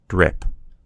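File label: "drip", American English; gcompris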